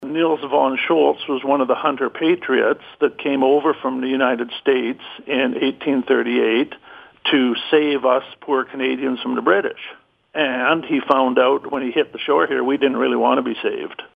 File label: Ghost Voice